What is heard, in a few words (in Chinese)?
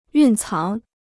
"蕴藏", Chinese